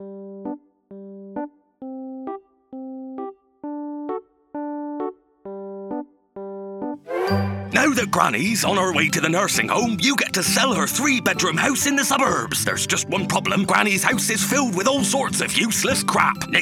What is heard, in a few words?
Commercial; Causewells; Dungeon Crawler Carl; Audio Imersion Tunnel Season 1